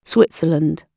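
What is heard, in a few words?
"switzerland" British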